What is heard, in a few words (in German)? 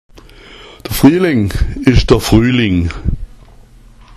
frialeng, schwaebisch; Frialeng ist der Frühling